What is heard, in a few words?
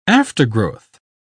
"aftergrowth", uk